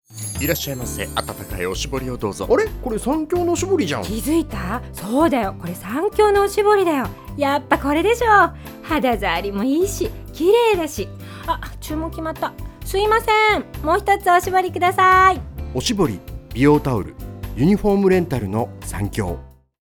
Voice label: radio